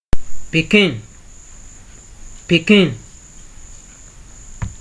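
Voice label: "pikin", Nigerian Pidgin, male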